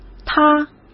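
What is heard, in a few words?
"塌", Mandarin Chinese; chinese-flashcards; hsk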